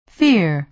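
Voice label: "fear", American English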